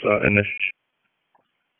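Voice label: Fire Department HQ Dispatch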